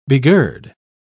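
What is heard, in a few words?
"begird", en, uk British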